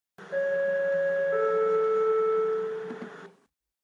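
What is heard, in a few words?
station announcements; trenitalia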